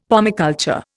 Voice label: "pomiculture", Indian; Dictionary